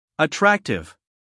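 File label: "attractive", American English, male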